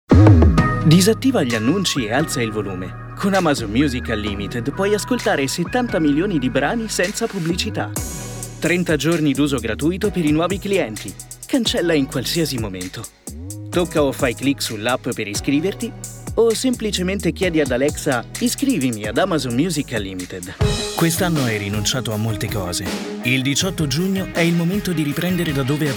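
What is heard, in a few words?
Italian male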